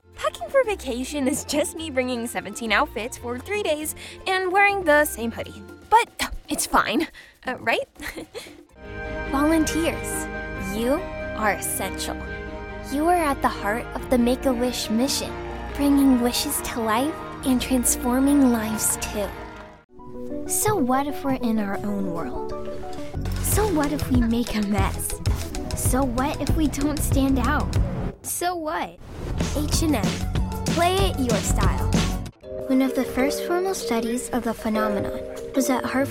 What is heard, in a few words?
VO Commercial Teen Preteen